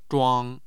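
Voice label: "zhuang1", Mandarin Chinese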